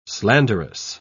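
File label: "slanderous", British English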